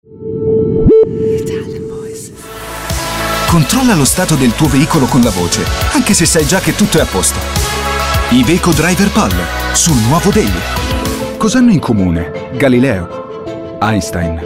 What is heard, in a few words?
ITALIAN VOICES Recent Commercials, male voices